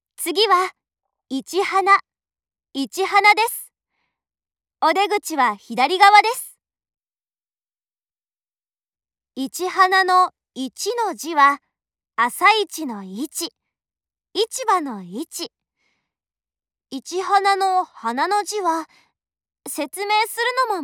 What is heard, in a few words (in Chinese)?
多田羅站發車 廣播, 萬岡鐵路社内放送; 茂木方向